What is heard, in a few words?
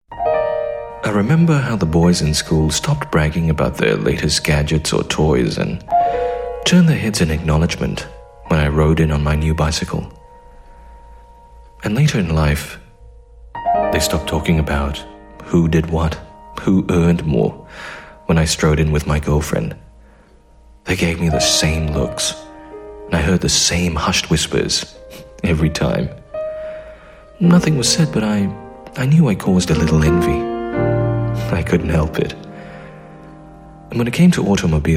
Asian English CHARACTER; LEXUS